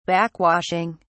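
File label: "backwashing", British English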